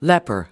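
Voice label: "leper", US English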